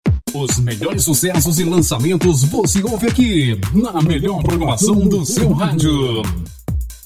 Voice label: efeito com fundo voz

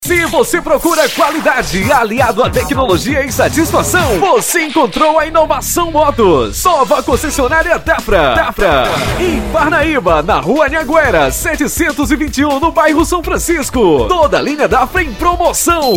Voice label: spot institucional; studio mix sound

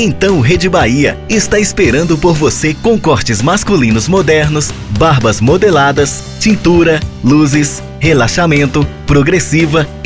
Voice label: VOZ IMPACTO GRAVE vinheta para salao de belea masculina e feminina chamada spot propaganda

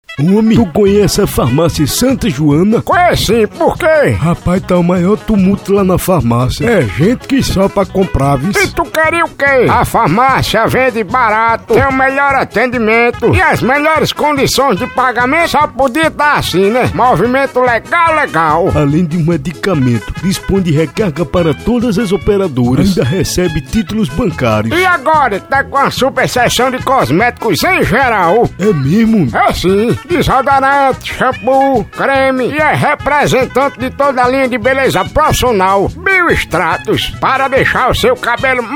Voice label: locutor spot farmacia; santa joana nazare da mata

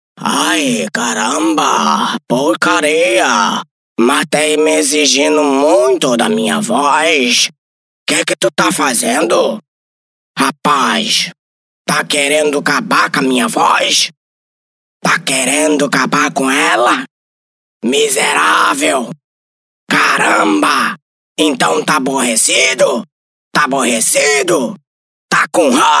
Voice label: vinheta ao vivo com caricato

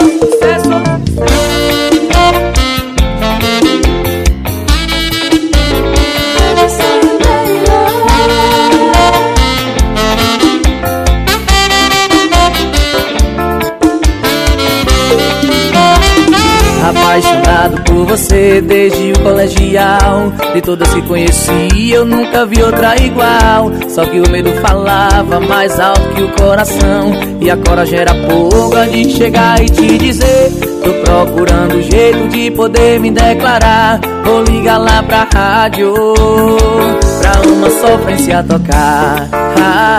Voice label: cantor locutor

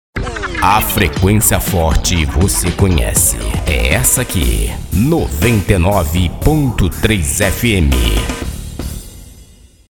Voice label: vinheta; afrequencia forte voce conhece essa aqui 993 fm

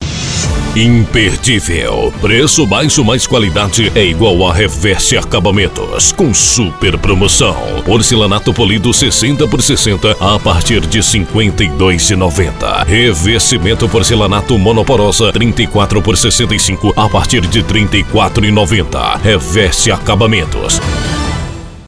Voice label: VOZ IMPACTO GRAVE, vinheta, spot, chamada; para loja de pisos revestimentos; comercial

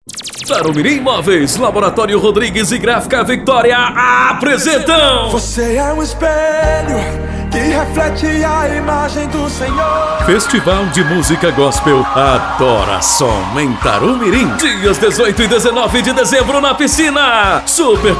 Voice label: spot; adorasom tarimirim

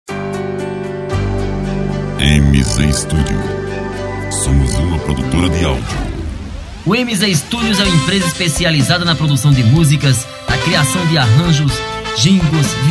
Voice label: comercial

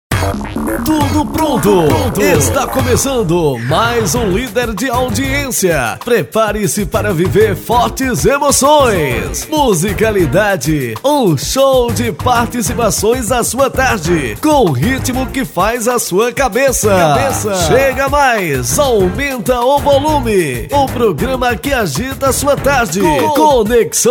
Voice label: abertura, locutor; conexao jovem

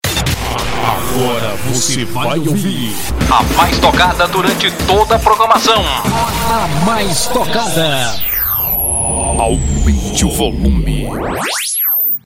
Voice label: vinheta; a gora vc vai ouvir a mais tocada durante toda pamacao

